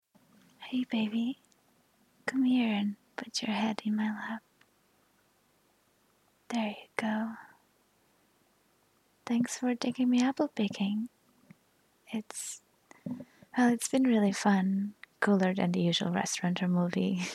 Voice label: sweet talk; Apple Picking; GFE